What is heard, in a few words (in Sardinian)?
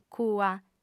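"cua", female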